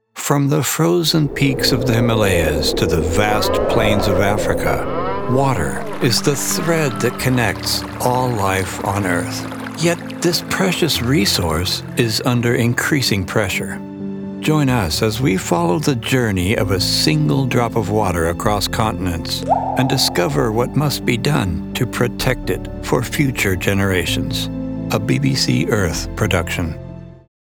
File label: Documentary; BBC Earth Water